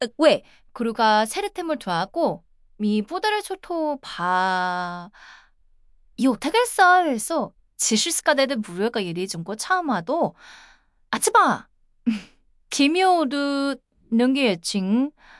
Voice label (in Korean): audiobook; llm evaluation engineering